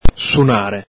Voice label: "sunare", Veneto